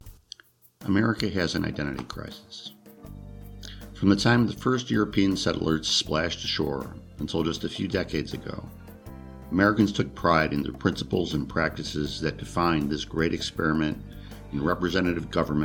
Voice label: Narration